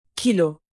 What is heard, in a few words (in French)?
"kilo", French native; alphabet fr